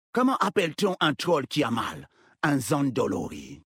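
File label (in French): French Homme Blague; Troll Zandalari; Zandolori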